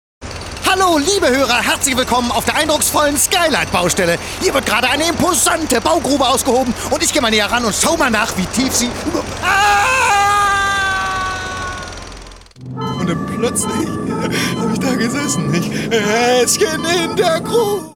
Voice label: reporter berichterstattung; Reporter Berichterstattung 2; Sprachproben Vol. I

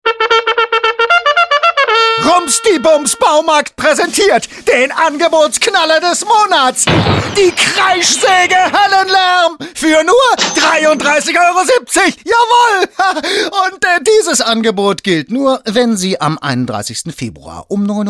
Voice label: presenter baumarkt

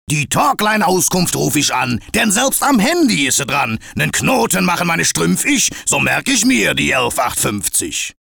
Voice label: talkline funkspot; Ruhrpott; Dialekte

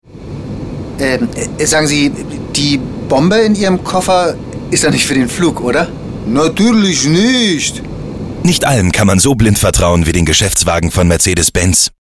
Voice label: flughafen security araber

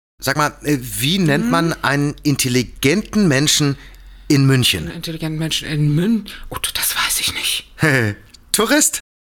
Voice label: Dialog

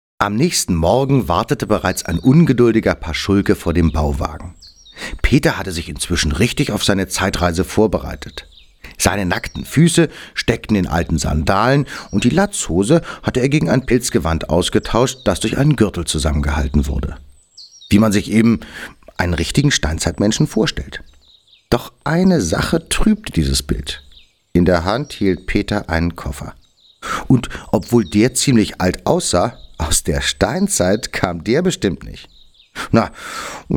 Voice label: erzaehler; Erzähler Löwenzahn

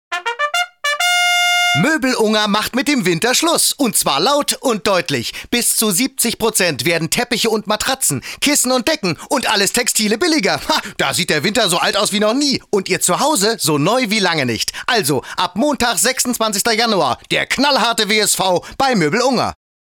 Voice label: presenter; Presenter Möbel Unger

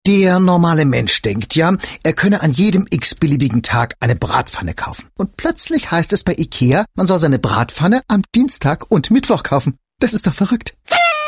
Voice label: ueberdrehter wissenschaftler; Überdrehter Wissenschaftler

